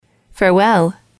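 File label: "farewell", English, female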